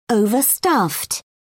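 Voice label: "overstuffed", English, British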